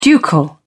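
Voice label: "ducal", British English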